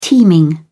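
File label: "teeming", British English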